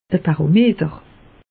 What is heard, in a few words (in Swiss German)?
"barometer", alsace; meteo